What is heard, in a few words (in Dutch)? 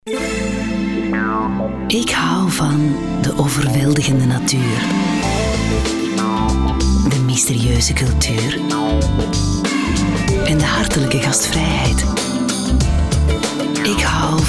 soft; leise; Jetair